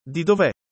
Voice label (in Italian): chiedere formale, italiano